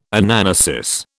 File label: "Anamnesis", British